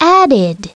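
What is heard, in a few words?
"added", English; SoftKey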